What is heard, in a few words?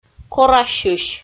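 "корасьысь" Komi, female; audio dict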